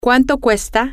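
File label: how much is X, Spanish, female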